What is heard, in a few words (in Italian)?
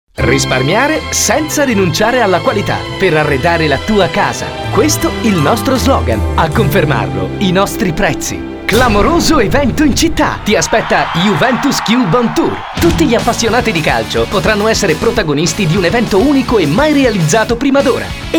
speaker maschile